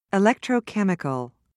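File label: "electrochemical", British English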